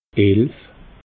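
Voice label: "elf", Alsatian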